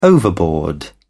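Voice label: "overboard", British English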